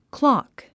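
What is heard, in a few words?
"clock", English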